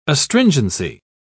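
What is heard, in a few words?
"astringency", British English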